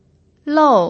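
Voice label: "lou", Mandarin Chinese pinyin, tone 4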